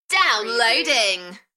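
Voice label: UK female Enthusiastic, DJ Vocal Tech / Sci-fi; Downloading; beanstalk audio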